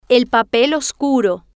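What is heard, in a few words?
"el papel oscuro", Spanish, female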